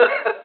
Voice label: LoFi Words, LoFi Vocals

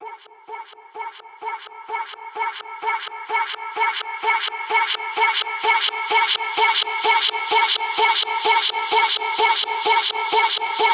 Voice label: FX Vocal; Vengeance Samples; VVE1 FX Vocals

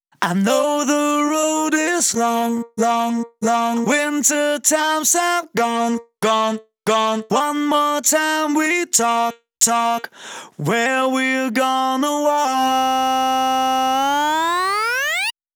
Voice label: Male Vocals DRY, 140 BPM; Vengeance Samples; Song Kits; One Second

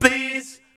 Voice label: male fx vox, vocal house, c; PS-28 Vocal House Samples

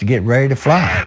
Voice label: LoFi Phrases, LoFi Vocals; Vengeance Samples

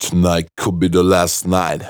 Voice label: Phrase Pitched; Vengeance Samples

